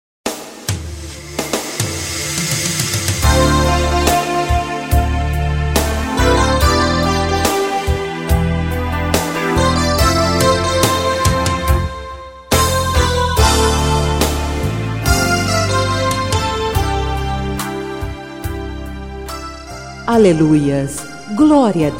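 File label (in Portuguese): Evangélica Bodas de Ouro, female, telemensagens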